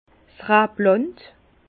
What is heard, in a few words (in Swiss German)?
"rabland", Bas Rhin; vin; vignoble